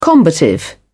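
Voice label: "combative", British English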